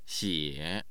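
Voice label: "xie3", Mandarin Chinese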